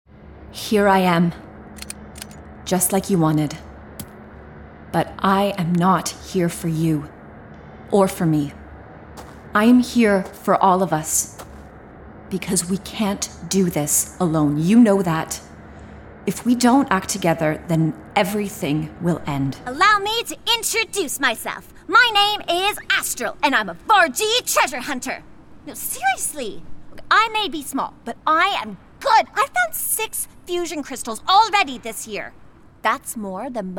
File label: gaming character; demo reel